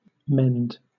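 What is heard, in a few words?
"mend", British English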